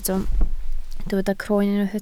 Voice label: "croen", Welsh

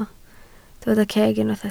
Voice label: "ceg", Welsh

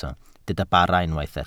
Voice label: "bara", Welsh

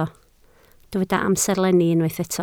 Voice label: "amserlenni", Welsh

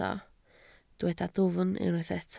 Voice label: "dwfn", Welsh

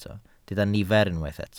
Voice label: "nifer", Welsh

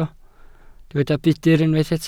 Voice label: "budr", Welsh

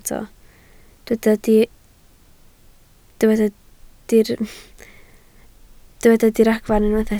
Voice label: "diragfarn", Welsh

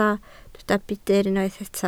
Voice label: "budr", Welsh

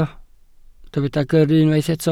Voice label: "gyrru", Welsh

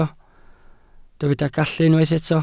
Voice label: "gallu", Welsh

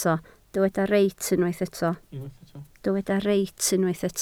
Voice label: "reit", Welsh

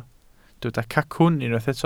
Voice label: "cacwn", Welsh

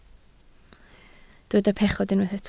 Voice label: "pechod", Welsh

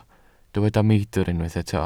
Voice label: "meidr", Welsh

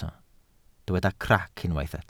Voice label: "crac", welsh

